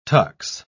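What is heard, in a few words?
"tux", English, British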